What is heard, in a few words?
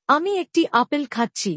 I am eating an apple., Bangla; Present Tense